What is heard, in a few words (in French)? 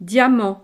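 "diamant", French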